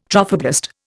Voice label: "trophoblast", Indian